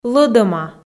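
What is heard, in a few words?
"лӧдӧма", Komi, female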